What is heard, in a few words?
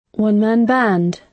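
"one-man band", British English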